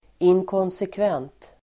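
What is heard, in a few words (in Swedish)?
"inkonsekvent", Swedish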